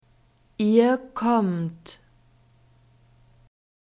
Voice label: "ihr kommt", German